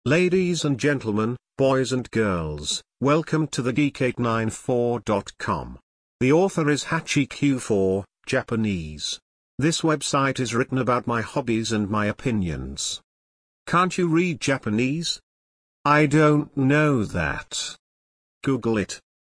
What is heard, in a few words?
british